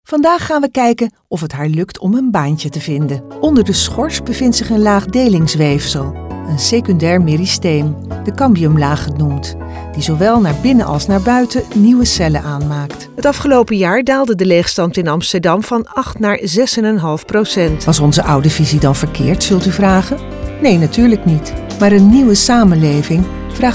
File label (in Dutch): stemdemo corporate